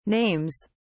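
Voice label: "names", British English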